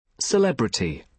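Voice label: "celebrity", British English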